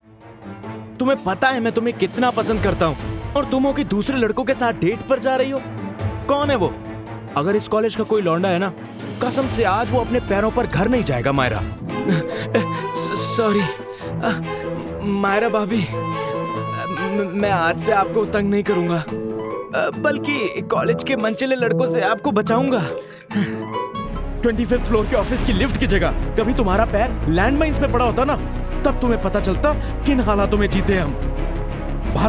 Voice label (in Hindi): Hindi Audiodrama